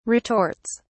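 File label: "retorts", British English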